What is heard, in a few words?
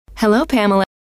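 "hello", female; trainer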